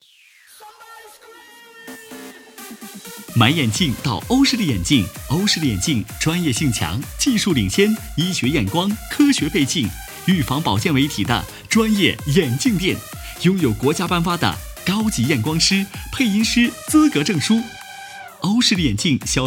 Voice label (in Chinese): 街头 眼镜广告（叫卖式）